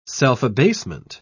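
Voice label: "self-abasement", British English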